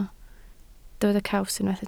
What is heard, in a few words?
"caws", Welsh